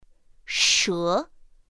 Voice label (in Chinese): "shé", pinyin; pinyin2; pinyinji